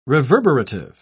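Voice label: "reverberative", British English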